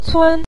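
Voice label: "cun" Mandarin Chinese, neutral tone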